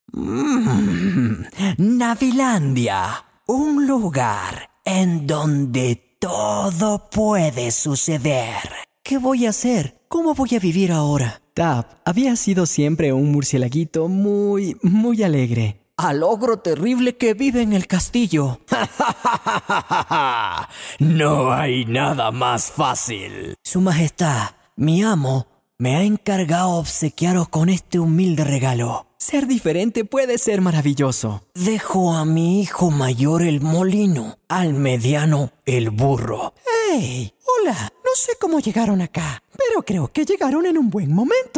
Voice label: spanish latin american neutral cartoon animation

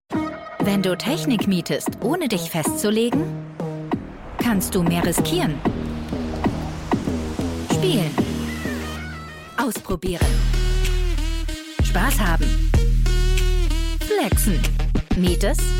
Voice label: german commercial

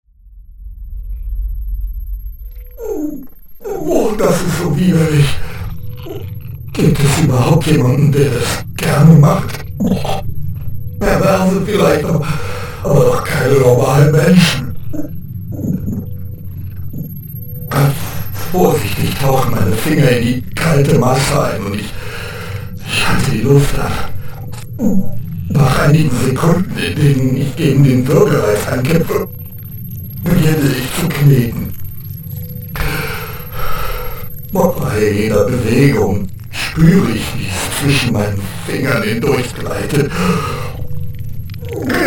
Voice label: german video games

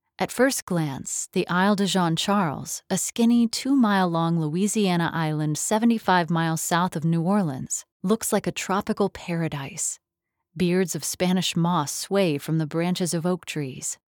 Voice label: english american audio guides; Chattanooga; hauptberufliche Synchronsprecherin; Heimstudio

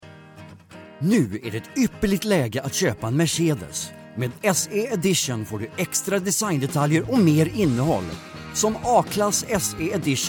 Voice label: swedish commercial

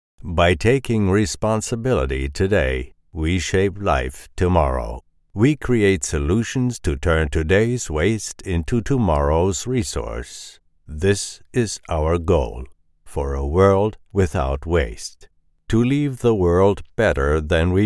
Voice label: English narration

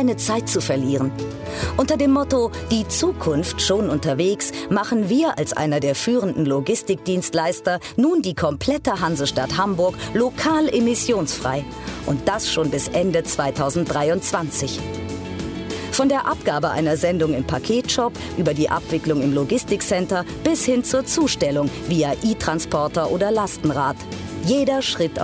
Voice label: german real estate; voice actor

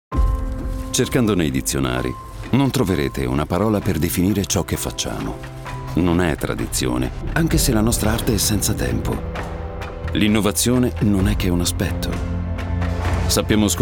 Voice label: italian commercial; Italiano; Voz italiana de gran calidad: cálida, convincente

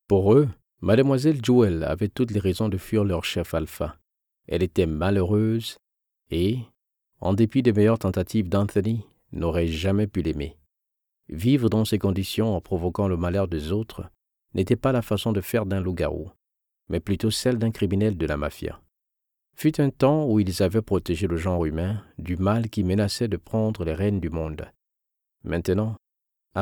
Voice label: french narration

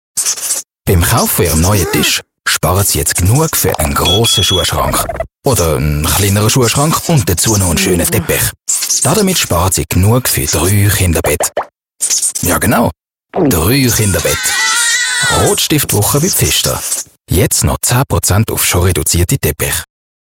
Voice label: german switzerland commercial

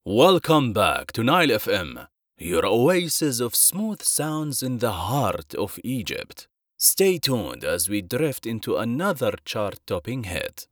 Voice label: english podcasts

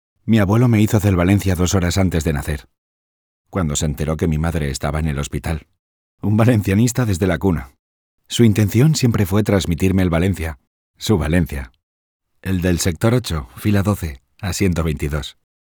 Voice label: spanish narration

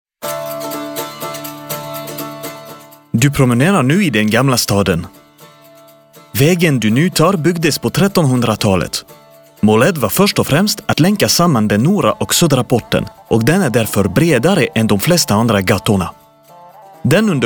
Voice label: swedish audio guides

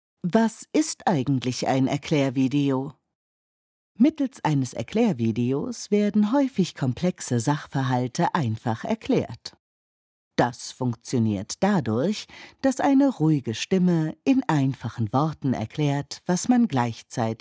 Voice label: german explainer videos

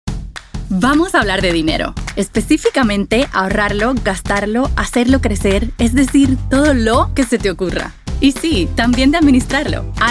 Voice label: spanish puerto rican commercial; puerto rican spanish voice actors; zweisprachige Sprecherin und Schauspielerin

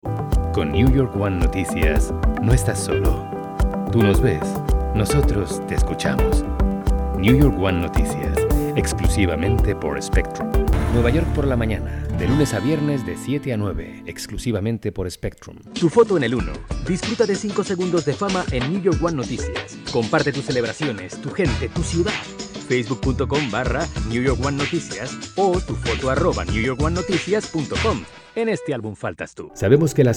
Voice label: spanish latin american promos

